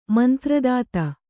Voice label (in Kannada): "ಮಂತ್ರದಾತ", Kannada